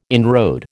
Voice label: "inroad", American

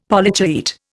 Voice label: "Polychaete", Indian; Dictionary

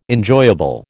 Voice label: "enjoyable", American

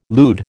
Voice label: "lewd", American

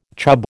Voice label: "chub", American

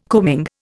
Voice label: "combing", Indian

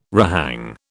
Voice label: "rehang", British

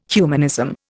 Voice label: "humanism", Indian

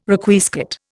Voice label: "Requiescat", Indian

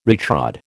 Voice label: "retrod", American

